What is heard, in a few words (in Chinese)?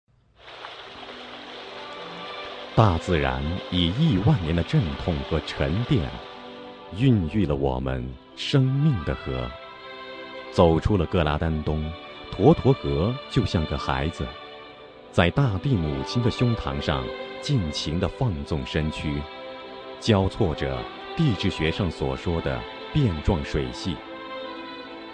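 【男纪录片】; 话说长江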